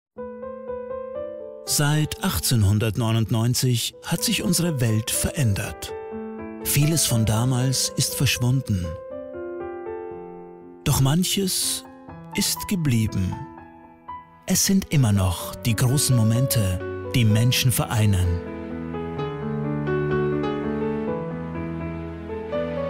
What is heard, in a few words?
voiceover